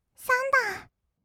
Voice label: "サンダー", kawaii voice; ASMR; 色々なワンショット

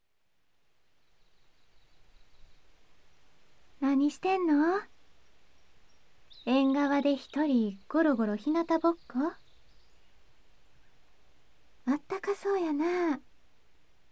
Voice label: 膝枕と耳かき, ASMR

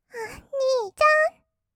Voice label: おにいちゃん, kawaii voice; ASMR; 二人称; 1200 kawaii voice!!

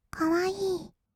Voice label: 「かわいい」, kawaii voice; 1200 kawaii voice!!; 色々なワンショット

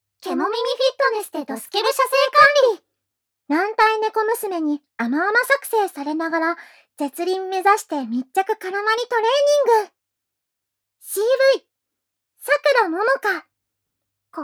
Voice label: タイトルコール, ASMR

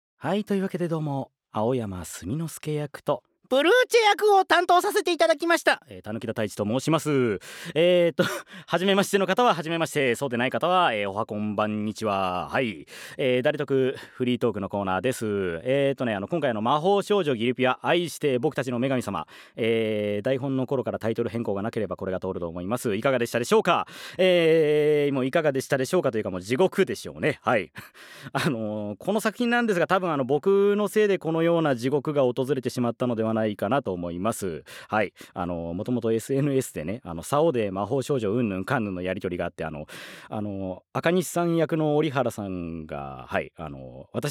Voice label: フリートーク

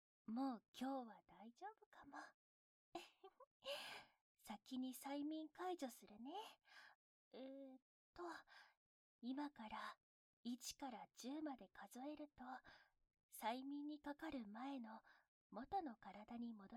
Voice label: 解除とピロートーク

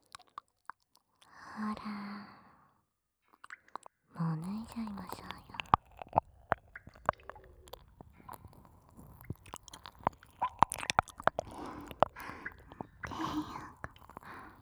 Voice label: 全身の性感がバグりまくる高速＆逆再生耳舐め＆フェラ, ASMR

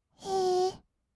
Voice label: "へー", kawaii voice; ASMR; 色々なワンショット

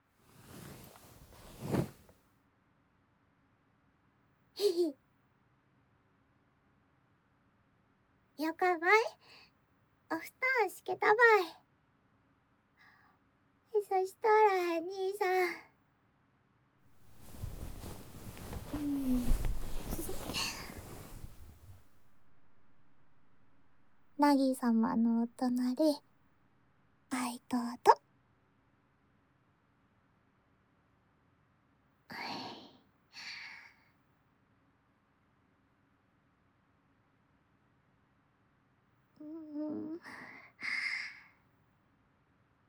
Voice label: ウィスパートークで安眠導入, ASMR

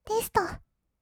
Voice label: "テスト", kawaii voice; 1200 kawaii voice!!; 色々なワンショット

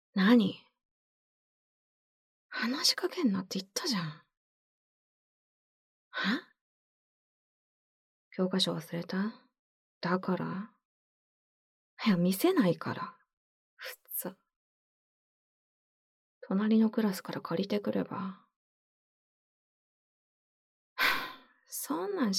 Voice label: 耳元囁き耳舐め, ASMR; 授業中に耳元囁き耳舐め愛液ローション手コキ

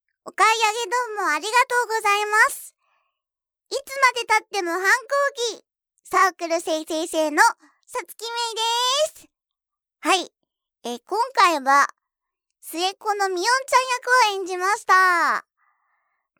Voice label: フリートーク

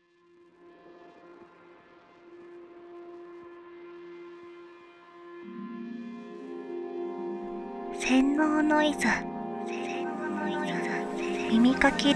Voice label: タイトルコール; GKSD013（洗脳ノイズ～耳かきリフレ店に舞い降りた天使を、あなたの耳奥ジャンキーに堕とす裏技～）

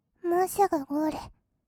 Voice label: "もうすぐゴール", kawaii voice; 1200 kawaii voice!!; 色々なワンショット

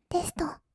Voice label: "テスト", kawaii voice; ASMR; 色々なワンショット

